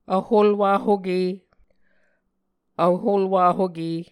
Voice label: "vholwahoke", Muscogee

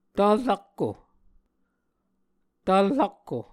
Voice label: "tvl rakko", Muscogee; Mvskoke Words with Repeated Consonants; Onvkv Ohhvyayices; A Dictionary of Creek/Muskogee; SIL International

